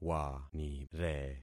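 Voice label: "wanire", concatenated syllables; Affixing experiment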